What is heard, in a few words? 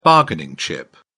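"bargaining chip", British English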